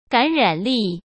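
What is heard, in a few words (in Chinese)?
"感染力" Mandarin Chinese; chinese-flashcards; hsk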